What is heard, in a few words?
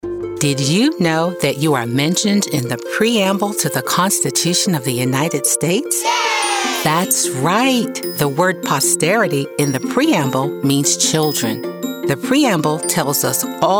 KIDS